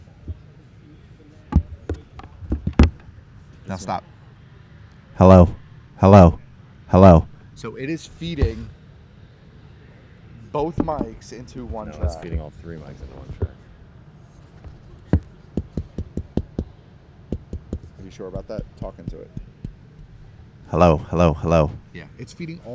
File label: Male Voice; NYCC 2013